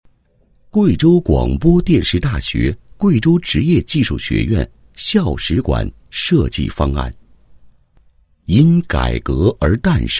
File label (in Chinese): 多媒体 项目规划 校史馆设计方案, 男